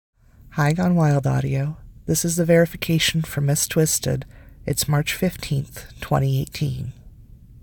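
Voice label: Female Verification; Verification for GWA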